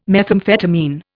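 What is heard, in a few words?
"methamphetamine", English, Indian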